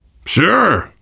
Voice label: you are welcome, voice, biker; Left 4 Dead 2; survivor

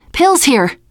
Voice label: spot pills, teengirl, voice; player; survivor

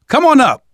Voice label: revive friend, survivor voice manager

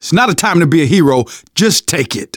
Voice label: alert give item, manager, survivor, voice; Left 4 Dead 2; left4dead2 dlc2

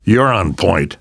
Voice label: lead on, survivor voice namvet; Left 4 Dead 2; left4dead2 dlc3

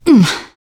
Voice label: shoved, teen girl; player; survivor; voice

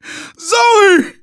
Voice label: grief teen girl, manager, survivor, voice; Left 4 Dead 2; left4dead2 dlc2

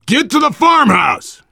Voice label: world farmhouse, voice, biker; Left 4 Dead 2; left4dead2 dlc3; survivor

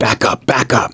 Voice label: backup quiet, voice; gambler

